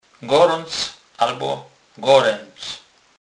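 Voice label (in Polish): "gorac", Kurpie dialect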